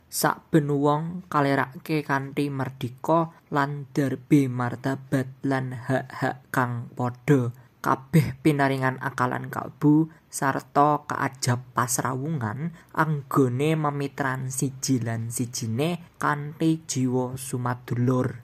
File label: udhr javanese